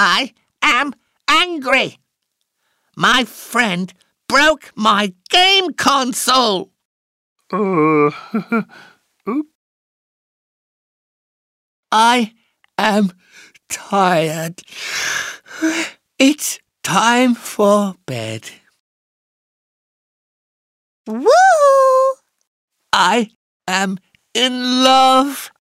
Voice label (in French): dialogues anglais